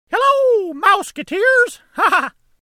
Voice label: mickey mouse voice impression